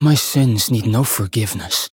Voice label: Voice variable chant